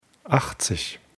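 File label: "achtzig", German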